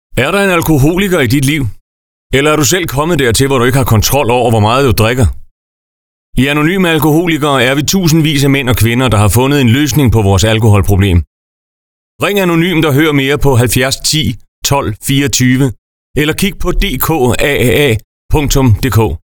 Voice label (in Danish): Radiospot